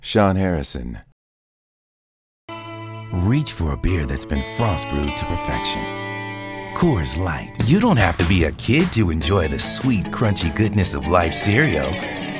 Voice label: commercial; Innovative LA